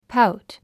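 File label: "pout", English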